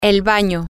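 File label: the bathroom, spanish, female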